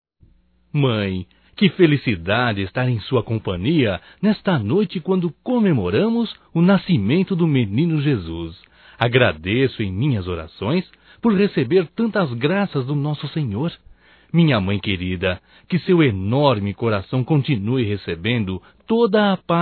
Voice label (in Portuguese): NATAL ANO NOVO MAE (M); Telemensagens 2017